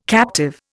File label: "captive", Indian